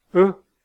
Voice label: Bulgarian letter ai one time